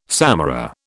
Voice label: "samara", British

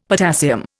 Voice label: "potassium", Indian; Dictionary

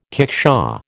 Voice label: "kickshaw", American

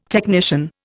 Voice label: "technician", Indian

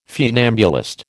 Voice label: "funambulist", American

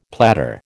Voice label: "platter", American

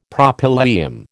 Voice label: "Propylaeum", American

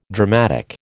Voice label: "dramatic", American

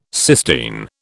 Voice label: "Sistine", British

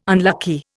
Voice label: "unlucky", Indian

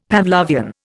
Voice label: "Pavlovian", Indian; Dictionary

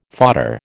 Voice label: "fodder", American

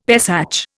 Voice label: "Pesach", Indian; Dictionary

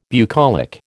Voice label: "bucolic", American

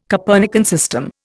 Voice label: "Copernican system", Indian

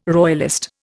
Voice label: "royalist", Indian

